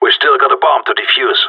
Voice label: hurry bomb, radio; GSG9